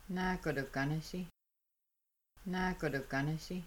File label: they might dry themselves, Kaska; Washing Dishes